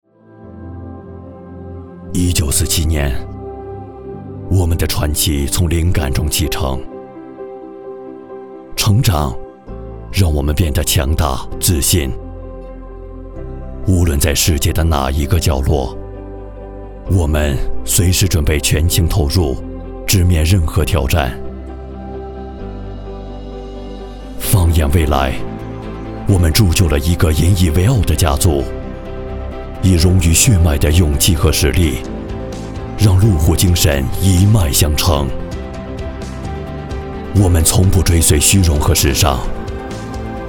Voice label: 男-电视广告-磁性旁白

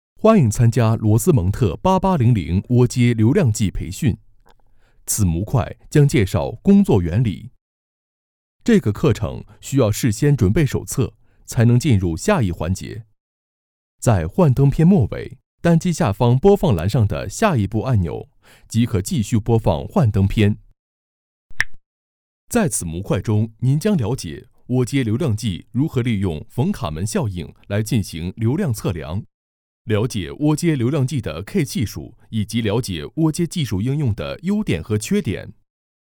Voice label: 男 课件 样音

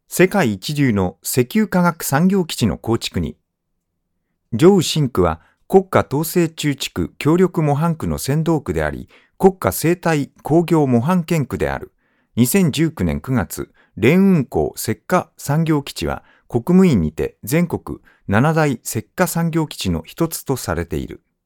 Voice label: 日语男 石化产业基地宣传片; 连云港石化产业基地宣传片

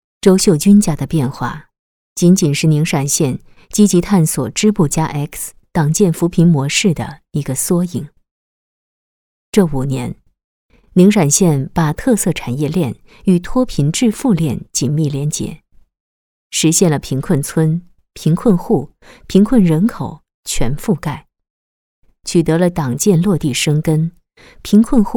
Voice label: 女 党建 讲述 素人; 情满山乡党建; 扶贫

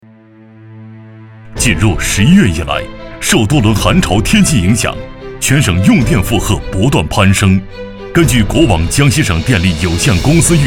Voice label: 男 宣传 力度 语速快

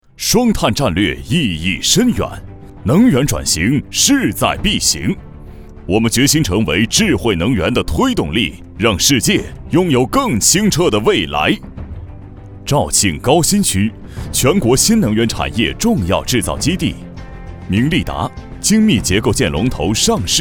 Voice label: 男 激情大气专题

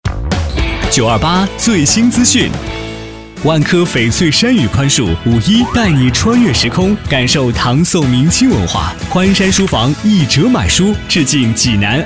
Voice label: 男 电台口播广告; 万科

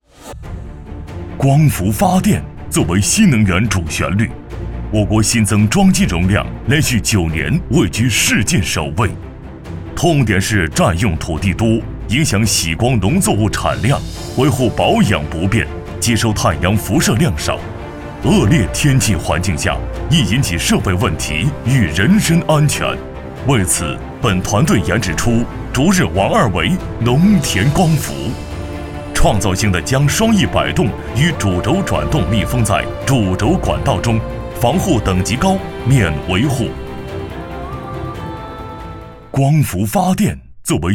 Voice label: 男 宣传 光伏发电

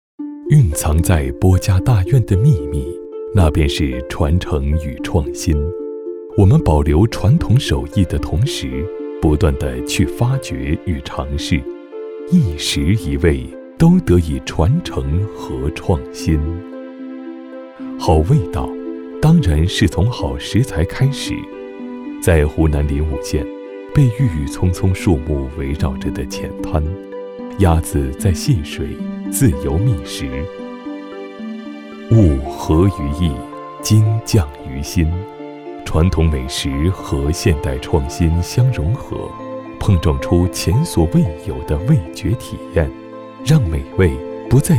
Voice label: 男 纪录片 美食

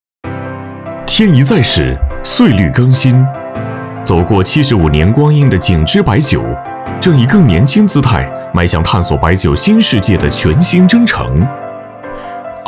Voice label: 男 专题宣传 大气活力; 白酒企业

